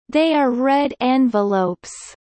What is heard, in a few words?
Kelly low